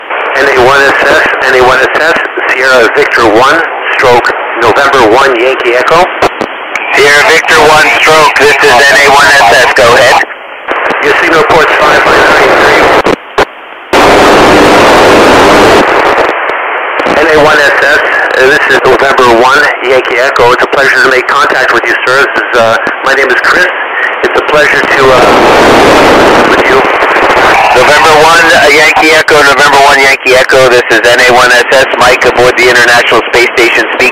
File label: QSO on ISS; Mike Fincke